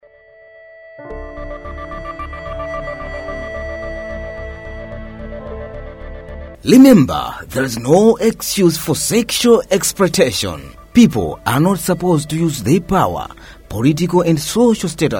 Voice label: Jingle English Version; KPWG